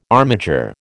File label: "armiger", American